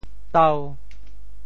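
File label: "tau5", Teochew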